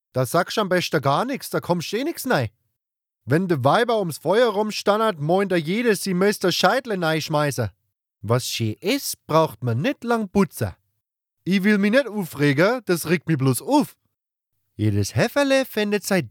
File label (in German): schwabisch